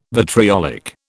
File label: "vitriolic", British